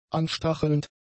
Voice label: "anstachelnd", German; adjectives